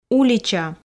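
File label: "улича", Komi, female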